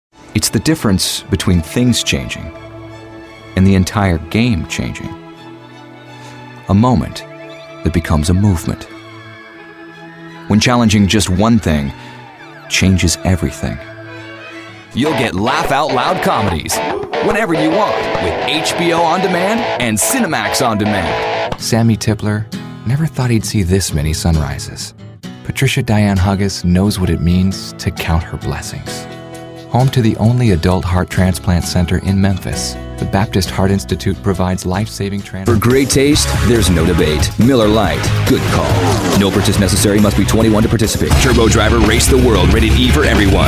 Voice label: commercial